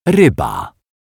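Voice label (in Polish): "ryba", Polish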